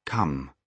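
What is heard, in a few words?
"come", British English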